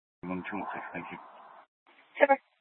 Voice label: Dispatch; Scottsdale